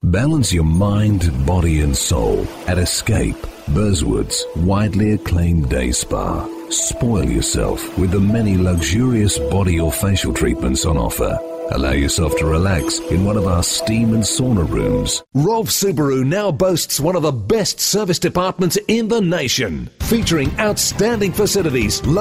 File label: Australian Male